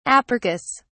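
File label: "apercus", British English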